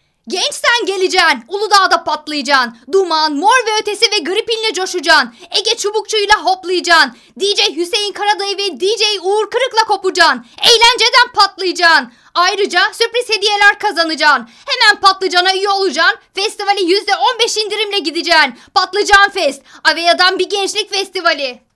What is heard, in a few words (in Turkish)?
REKLAM